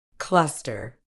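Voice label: "cluster", British English; ˈklʌs.tə; KLUSS-tuh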